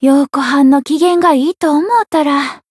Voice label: Voice growth, jp; hoozuki